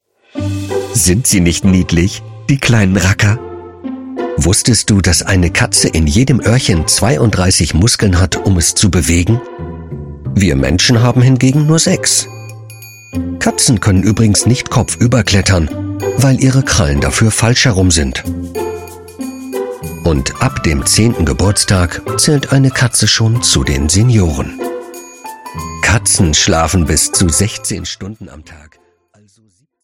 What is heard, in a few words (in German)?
Werbung freundlich erzaehlerisch warmherzig